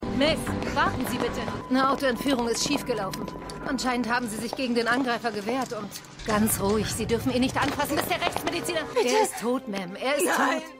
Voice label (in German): Streifenpolizistin; Shades Of Blue